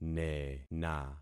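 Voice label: "nena", concatenated syllables; Affixing experiment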